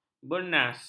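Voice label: "bernas", Malay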